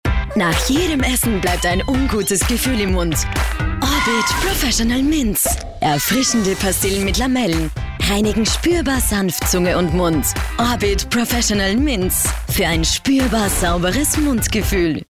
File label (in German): Frau OFF